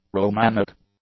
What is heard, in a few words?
"Romanic", english; adjectives